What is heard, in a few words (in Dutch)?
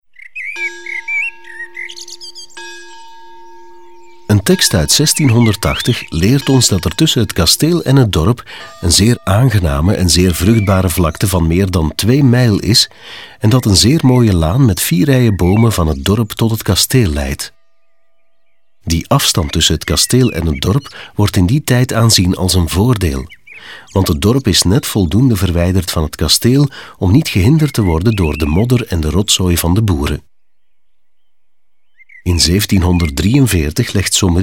audioguide nl adulte; modave